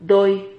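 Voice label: "doy", Spanish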